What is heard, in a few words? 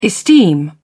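"esteem", British English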